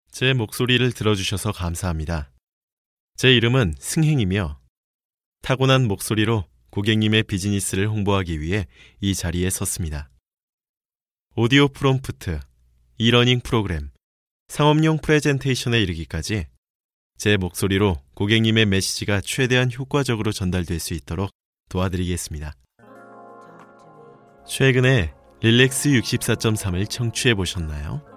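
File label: male, Korean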